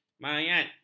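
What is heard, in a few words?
"mayat", Malay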